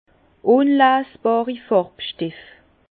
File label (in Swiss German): "farbstef", Bas Rhin; vin; matières colorantes insolubles